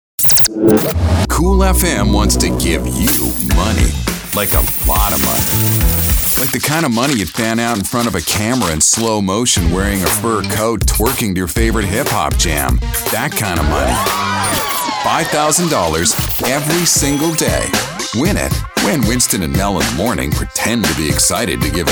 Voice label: Hot AC Promo

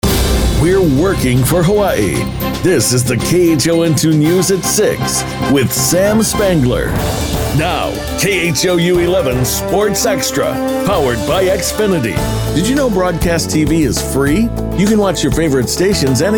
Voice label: television

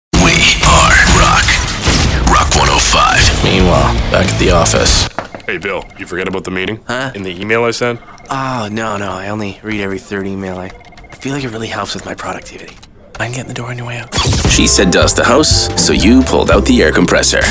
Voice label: Rock VO

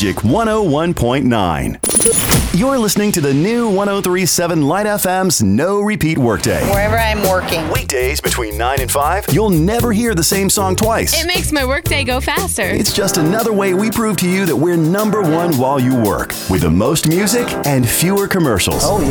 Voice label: Soft Rock

